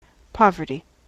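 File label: "poverty", US English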